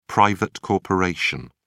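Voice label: "private corporation", British English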